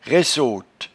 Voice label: "raissote", patois jura